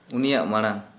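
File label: Santali male before that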